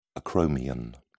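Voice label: "acromion", British English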